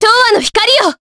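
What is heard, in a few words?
voice, Japanese; Aselica; kingsraid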